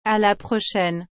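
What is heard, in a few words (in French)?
"A la prochaine", French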